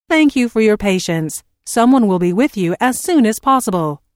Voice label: Telephone prompt: Thank you for your patience someone will be with you as soon as possible, Recorded Voice